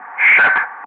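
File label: "shack", English; Ka-50; Wingman; Speech; DCS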